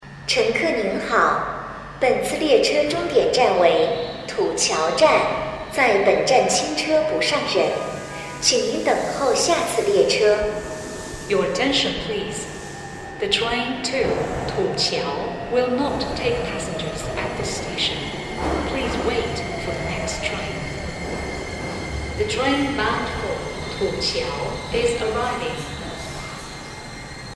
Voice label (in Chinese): 八通线 土桥清车广播